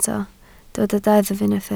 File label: "deddf", Welsh